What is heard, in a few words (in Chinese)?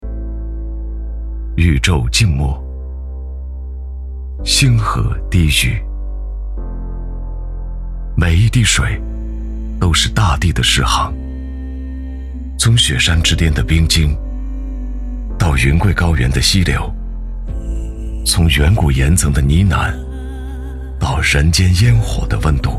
男 专题; 兴义水务